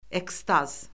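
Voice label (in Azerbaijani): "ekstaz", Azerbaijani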